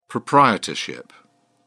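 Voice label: "proprietorship", British English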